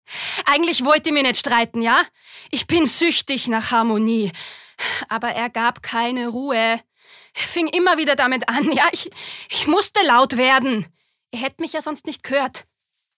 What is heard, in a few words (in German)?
streiten oesterreichisch